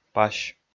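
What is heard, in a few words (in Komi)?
"пась", Komi, male; audio dict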